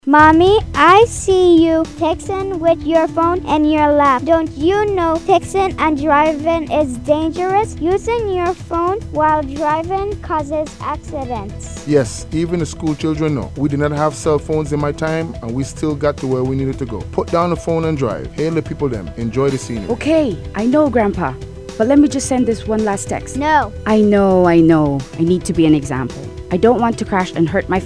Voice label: Radio Ad car insurance; the Buzz 104.3